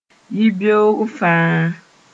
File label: "yibeoog fãa", mos-en; every morning